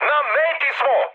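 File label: Serbian near waypoint mood high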